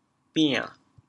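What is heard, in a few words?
"bian3", Teochew, chaoyang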